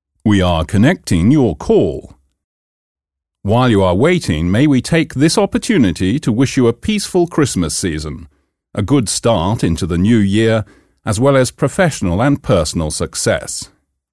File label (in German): weihnachtsansage